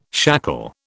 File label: "shackle", British